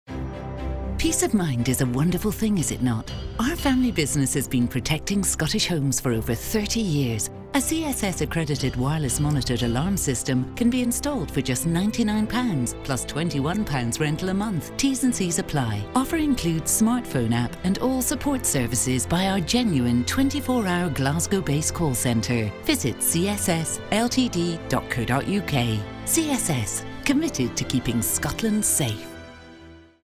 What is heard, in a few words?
Radio advert